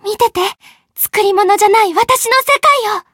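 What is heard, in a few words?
chant, Japanese; mayu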